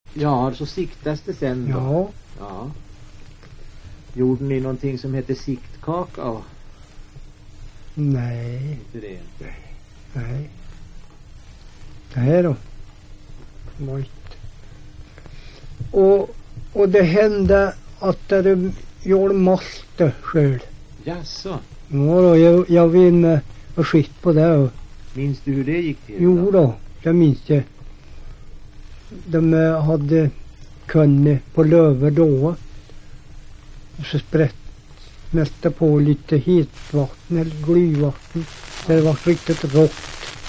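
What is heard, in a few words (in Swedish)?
Hede Härjedalen, dialekter; Folkminnen; Svenska sagor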